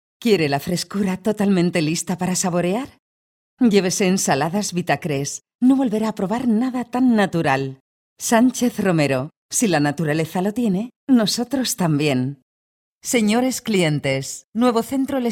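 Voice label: Spanish Spain, commercial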